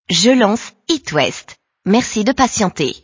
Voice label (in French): lancement radio; hitwest